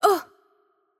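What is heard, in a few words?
Hungarian hurt light voice; bloom minigame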